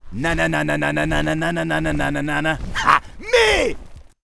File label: Troll batrider Angry; warcraft3